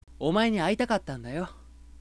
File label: sample sweet hi, voice